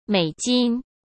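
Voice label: "美金", Mandarin Chinese; hsk; chinese-flashcards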